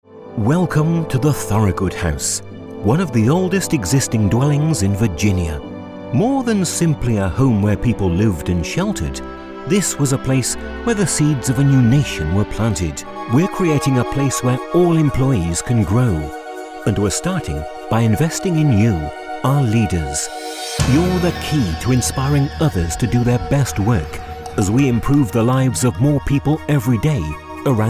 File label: Narration